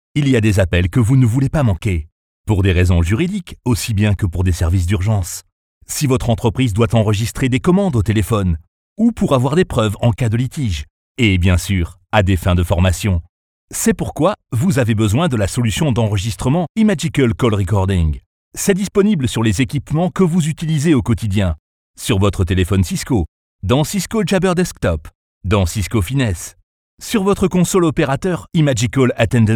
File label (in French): voix grave souriante pub; cisco